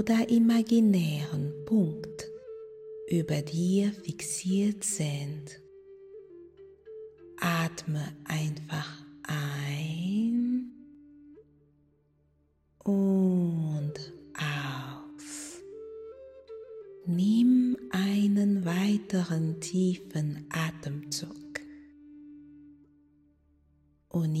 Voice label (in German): Manifestiere deine Traume Hypnose fur Fulle und Erfolg